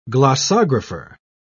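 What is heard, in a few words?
"glossographer", English, British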